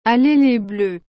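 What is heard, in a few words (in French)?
"Allez les Bleus", French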